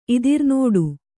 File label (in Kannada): "ಇದಿರ್ನೋಡು", Kannada